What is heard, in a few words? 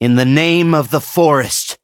level up, en; Cookie Run